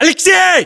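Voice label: "aleksei", battlechatter, Russian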